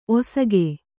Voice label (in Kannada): "ಒಸಗು", kannada